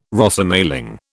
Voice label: "Rosemaling", British